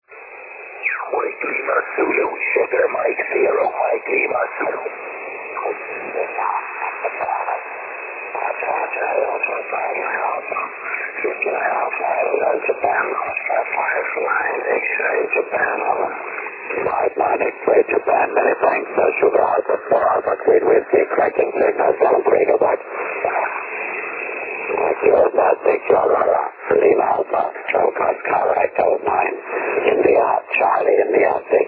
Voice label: 6m ssb